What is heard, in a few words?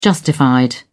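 "justified", British English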